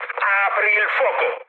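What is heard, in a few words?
Italian attack position mood med, speech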